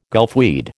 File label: "gulfweed", American